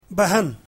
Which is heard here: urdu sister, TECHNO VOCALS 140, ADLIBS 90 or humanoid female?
urdu sister